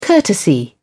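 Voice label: "courtesy", British English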